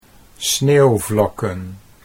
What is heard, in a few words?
"sneeuwvlokken", Dutch